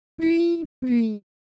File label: Korean, Ideo Phones; Motion